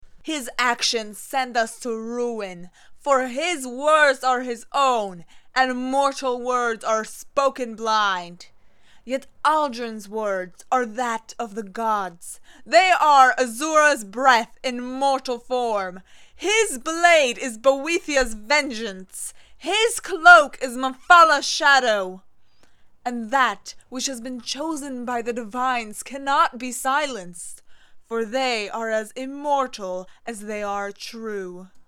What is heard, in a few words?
half steps down, unique voice auditions